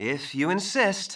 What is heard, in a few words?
Male; baldurs1